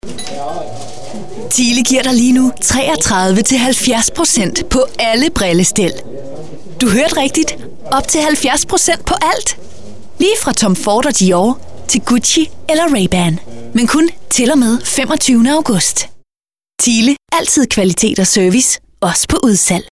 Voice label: danish female

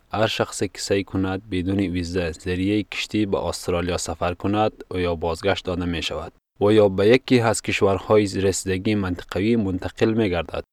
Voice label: dari male

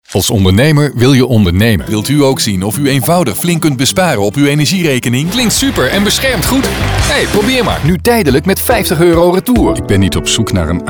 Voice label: dutch male

